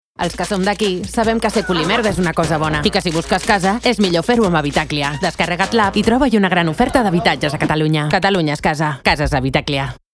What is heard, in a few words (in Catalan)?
SPOT FRESC NATURAL